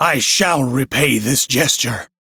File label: Crew Heal, Male; Warframe; Railjack Crew; Arbiters Hexis